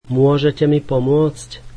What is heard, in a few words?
Can you help me, slovak